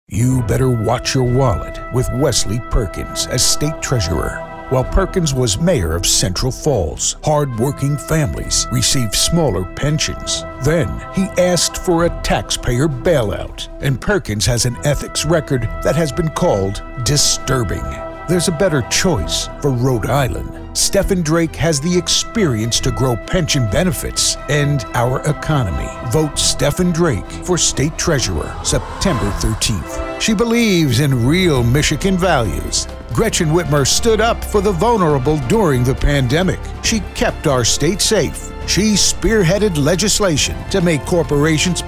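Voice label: Democrat, Political, Male Voiceover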